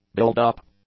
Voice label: "buildup", English

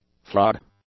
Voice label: "frog", English; nouns

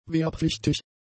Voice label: "wehrpflichtig", German; adjectives

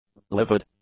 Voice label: "livid", english; adjectives

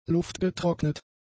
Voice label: "luftgetrocknet", German; adjectives

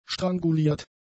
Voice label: "stranguliert", German; adjectives

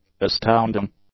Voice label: "astounding", English; adjectives

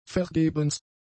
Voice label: "vergebens", German; adjectives

